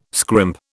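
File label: "scrimp", British English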